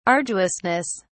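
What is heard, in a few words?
"arduousness", English, British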